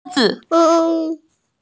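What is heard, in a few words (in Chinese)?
"zhuo zi", Mandarin Chinese, female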